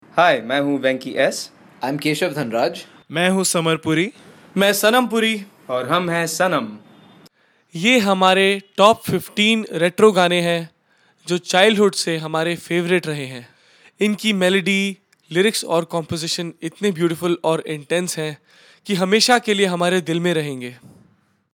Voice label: commentary